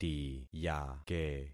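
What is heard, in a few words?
"tiyake", concatenated syllables; Affixing experiment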